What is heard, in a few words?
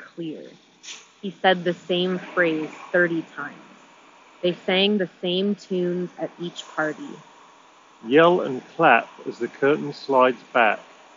Bose QuietComfort 35 II Street microphone sample